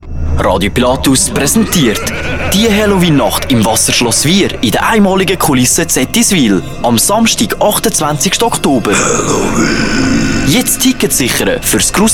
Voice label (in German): radio; radio pilatus; halloween nacht; ettiswil